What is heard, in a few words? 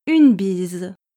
"une bise", French